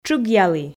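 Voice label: "тшыгъялі", Komi, female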